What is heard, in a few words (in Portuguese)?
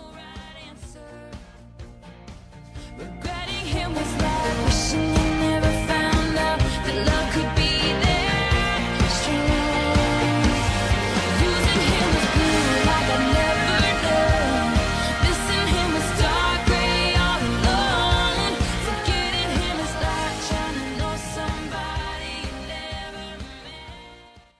FILHO F; Aniversários; Aniversários diversos; fem